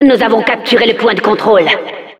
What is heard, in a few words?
Announcer we captured control, fr